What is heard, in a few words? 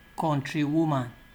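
"countrywoman", Nigerian Pidgin, male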